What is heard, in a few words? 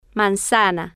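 "manzana", Spanish, female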